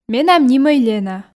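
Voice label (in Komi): менам нимӧй, Komi, female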